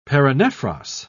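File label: "paranephros", British English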